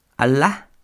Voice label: "ala", Quiché; muchacho, joven soltero; Boy, unmarried young man; INALI; UTexas; CHQETA